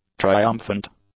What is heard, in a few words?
"triumphant", English; adjectives